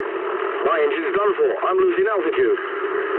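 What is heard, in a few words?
damaged engine mood low, speech English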